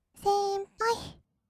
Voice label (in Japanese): "先輩", kawaii voice; ASMR; 二人称; 1200 kawaii voice!!